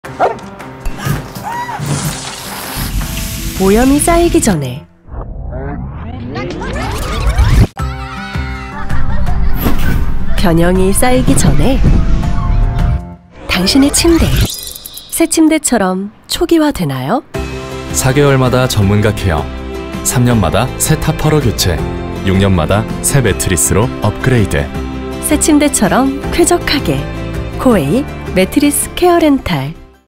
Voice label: Commercial